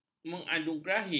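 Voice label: "menganugerahi", Malay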